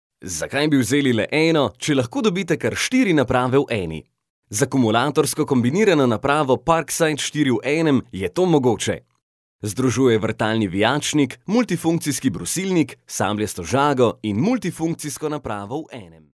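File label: Slovenian, male, commercial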